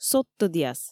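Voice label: "содтӧдъяс", Komi, female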